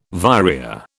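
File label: "vireo", British English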